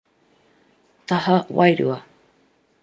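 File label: "taha wairua", Māori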